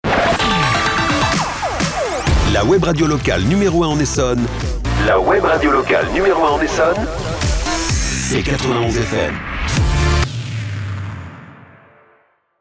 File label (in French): Jingle Webradio #1 Essonne; Transitions